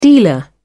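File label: "dealer", British English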